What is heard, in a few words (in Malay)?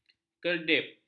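"kerdip", Malay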